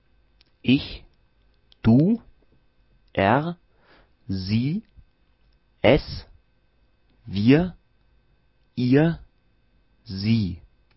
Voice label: German Verb conjugation